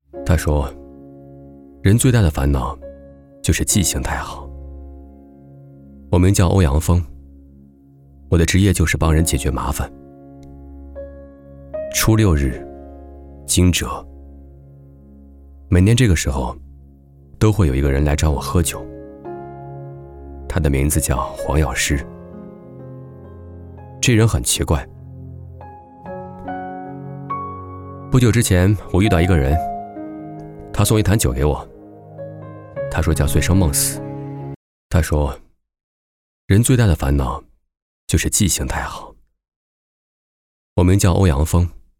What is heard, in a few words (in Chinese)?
男 短视频 第一人称 鸡汤 名言警句; 梁家辉语录